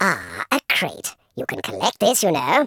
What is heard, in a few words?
"drop", Patronising speech